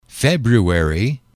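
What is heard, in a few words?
"february", english, male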